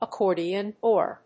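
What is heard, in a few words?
"accordion door", English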